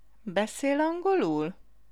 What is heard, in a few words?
Do you speak English formal, Hungarian